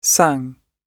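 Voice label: "sang", British English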